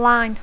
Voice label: "line", English